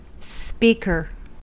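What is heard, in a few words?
"speaker", US English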